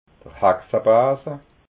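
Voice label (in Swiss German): "axabasa", Alsatian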